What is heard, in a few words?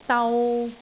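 "dau3", Cantonese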